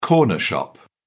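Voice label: "corner shop", British English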